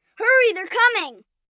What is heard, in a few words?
Distress Hurry, 1 boy, 10 yrs; Exclamation; Voice Box